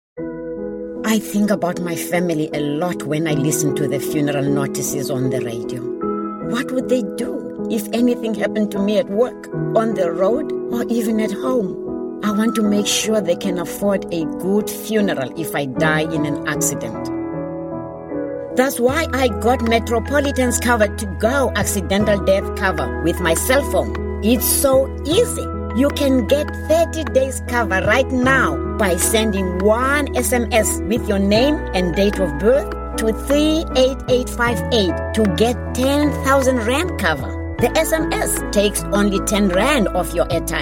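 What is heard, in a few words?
F, South African English, female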